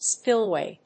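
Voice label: "spillway", English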